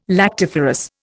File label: "lactiferous", Indian